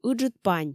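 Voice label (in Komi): "ыджыд пань", Komi, female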